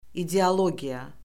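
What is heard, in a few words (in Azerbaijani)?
"ideologiya", Azerbaijani; Orfoqrafiya Lüğəti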